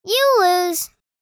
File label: You lose kid girl vocalization